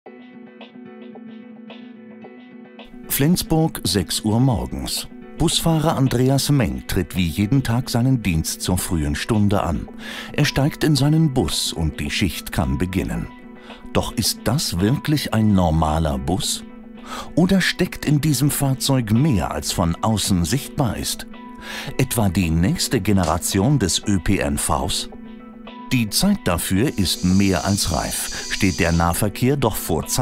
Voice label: Documentary Narrations Entreprise, German voice-over, Precise and Factual; Flensburg City